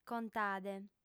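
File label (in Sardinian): "contade", female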